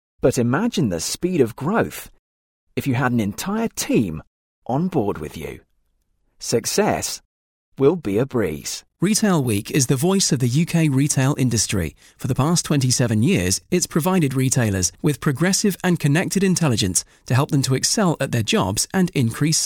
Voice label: CORPORATE